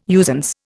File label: "usance", Indian